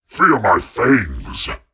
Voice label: Voices Phrase Hi